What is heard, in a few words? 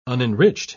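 "unenriched", British English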